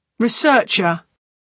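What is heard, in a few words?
"researcher", British English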